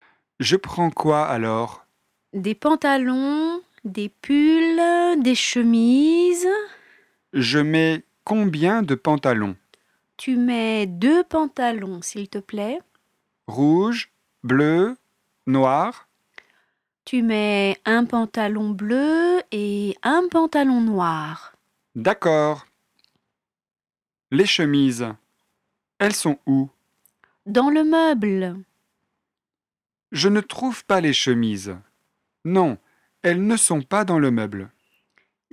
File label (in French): dialogue francais debutant